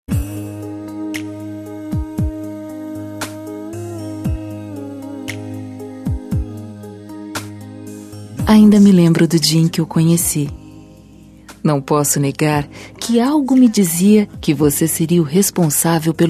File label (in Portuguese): Namorados Com Declaração, F